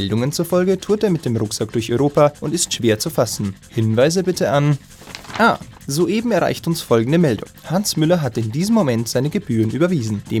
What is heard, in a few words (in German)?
Werbung Sparkasse